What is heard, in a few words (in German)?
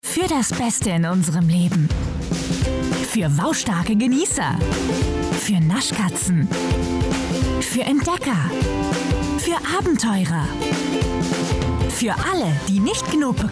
Werbung; Tiierisch